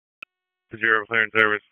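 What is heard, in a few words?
EMS DISP, radio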